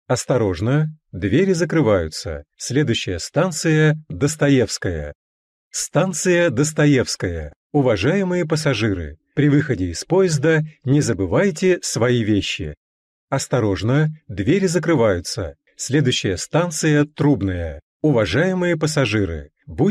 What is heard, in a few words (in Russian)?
diktor v moskovskom metro lyublinsko-dmitrovskaya liniya